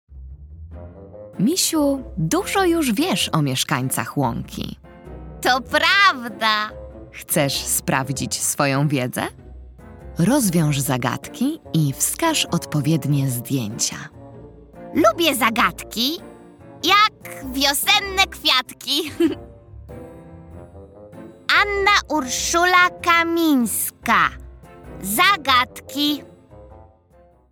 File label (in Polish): narracja; czasopismo mis; wiosna; rozmowa